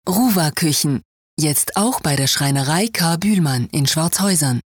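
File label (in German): Werbung, German, Swiss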